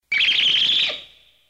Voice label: angry short